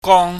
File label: "gwong", chinese